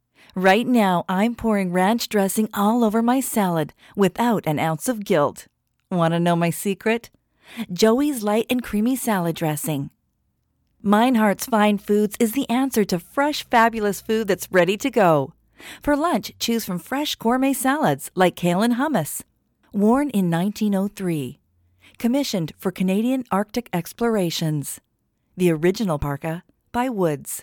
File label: Commercial cold